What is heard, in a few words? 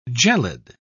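"gelid", British English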